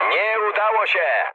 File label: bomb failure mood med, speech pol; War Thunder